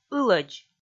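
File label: "ылӧдз", Komi, female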